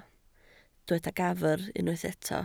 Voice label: "gafr", Welsh